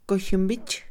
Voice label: convertirse, Mixe, Mujer; INALI

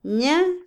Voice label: "hablar", Otomí, mujer; Vocabulary; INALI

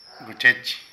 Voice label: Iguana, Zapoteco de Petapa; Animales; Vocabulary

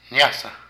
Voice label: Camino, Zapoteco de Petapa; Movimiento; Vocabulary

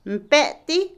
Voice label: Otomí, mujer; Acciones; bofetada; INALI

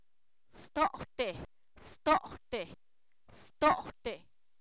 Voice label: al rato, Zapoteco, san miguel albarradas; adverbios

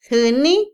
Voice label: tocar, Otomí, mujer; Percepción; INALI

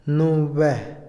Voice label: ahora, Otomí, hombre; Tiempo; Vocabulary; INALI

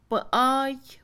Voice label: orilla, Mixe, Mujer; INALI

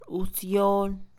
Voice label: planta, Mixe, Mujer; INALI

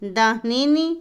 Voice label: "ciudad", Otomí, mujer; Sociales; Vocabulary; INALI

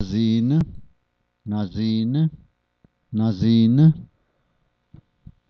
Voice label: oreado, Zapoteco San Pablo Villa de Mitla; adjetivos; Vocabulary; sta maria albarradas

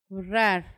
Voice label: voló, Paipai; Vocabulary; INALI

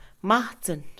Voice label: Mixe, Mujer; trampa; INALI

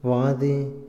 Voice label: "chamán", Otomí, hombre; Religión; INALI

